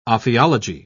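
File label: "ophiology", British English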